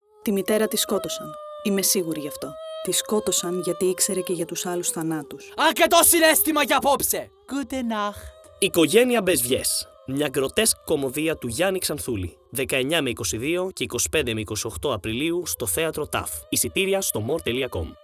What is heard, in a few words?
spot; οικογένεια μπες βγες